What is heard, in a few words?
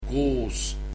with, estonian